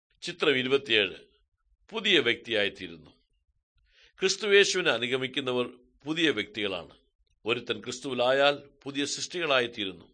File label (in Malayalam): good news malayalam, drama